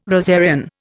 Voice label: "rosarian", Indian